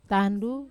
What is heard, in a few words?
"tandu", Wamesa